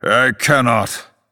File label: Male Night Elf error play